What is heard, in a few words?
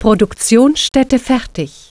workshop Complete, german